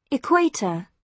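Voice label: "equator", British English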